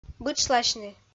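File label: "бытшласьны", Komi, female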